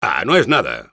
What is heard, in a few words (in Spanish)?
Engineer auto capped intelligence, es